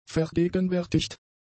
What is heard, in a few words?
"vergegenwärtigt", German; adjectives